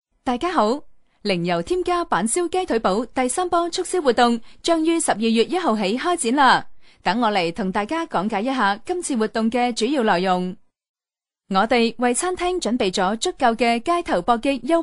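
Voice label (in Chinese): 女粤（宣传片）; 知性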